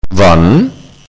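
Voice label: "When", German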